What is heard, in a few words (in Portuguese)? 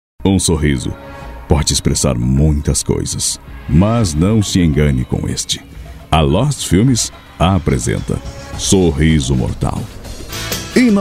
locucao para video voz locutor